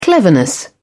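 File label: "cleverness", British English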